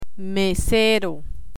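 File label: "mesero" Spanish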